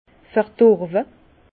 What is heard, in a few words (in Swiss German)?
"verdorwe", Bas Rhin; vin; alteré